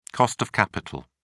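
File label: "cost of capital", British English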